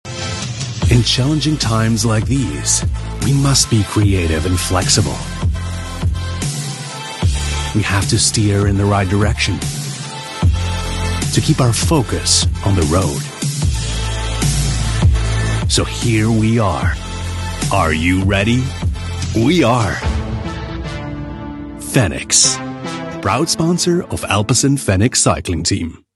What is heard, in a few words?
midatlantic voice corporate